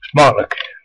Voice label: bon appetit, west flemish